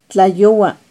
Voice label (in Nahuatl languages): "tlayohua", Náhuatl; es de noche; NAHUATLAHTOLLI